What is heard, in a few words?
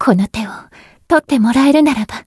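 encounter, Japanese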